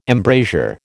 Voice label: "embrasure", American